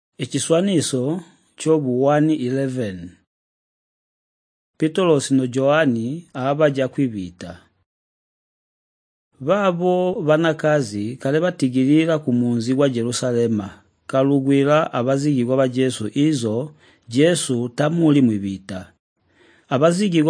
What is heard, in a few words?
the living christ tonga shanjo, drama; chitonga; jesu mumi